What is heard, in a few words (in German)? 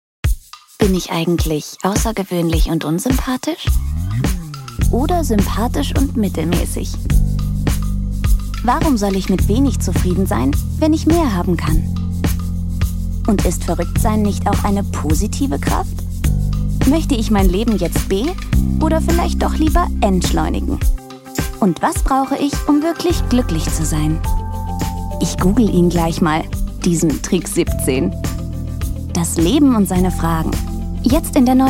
Dynamisch; Fremdtake